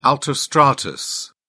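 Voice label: "altostratus", British English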